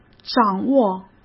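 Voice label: "掌握", Mandarin Chinese; hsk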